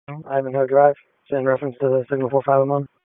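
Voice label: Raleigh Police Northeast District Dispatch; wake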